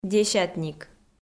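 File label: "десятник", Komi, female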